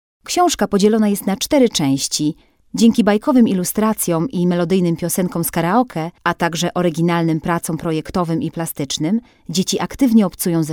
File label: F E LEARNING